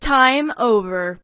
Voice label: Time Over, announcer male